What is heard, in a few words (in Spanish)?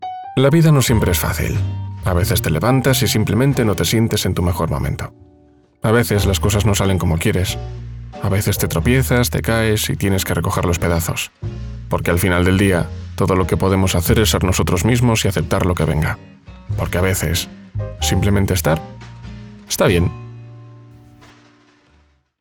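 generico España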